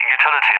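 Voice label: "utility", English, UK; Target; DCS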